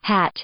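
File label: "hat", English; noun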